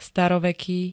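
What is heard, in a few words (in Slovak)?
"staroveký", Slovak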